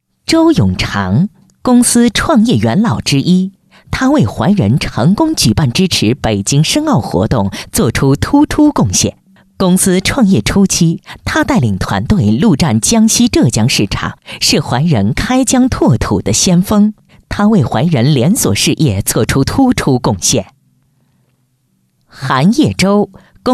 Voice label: 女, 颁奖; 怀仁20周年

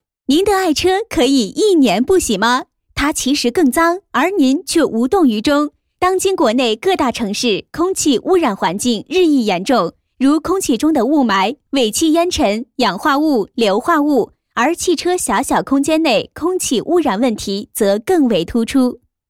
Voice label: 女, 品牌宣传; 倍臣净味抗菌型空调滤芯

